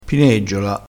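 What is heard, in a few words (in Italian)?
"pineggiola", dialetto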